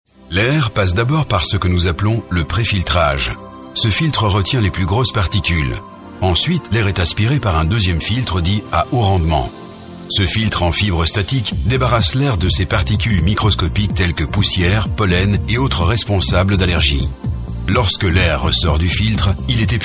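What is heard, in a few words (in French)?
Belgian French, male, narration; voice demos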